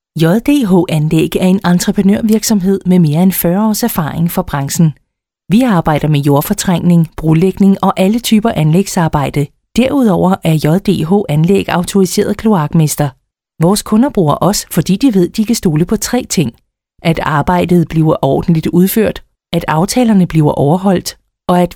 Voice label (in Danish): Virksomhedsfilm